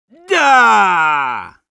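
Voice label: Sniper cheers, Russian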